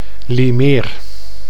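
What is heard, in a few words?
"Liemeer", Dutch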